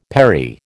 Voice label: "perry", American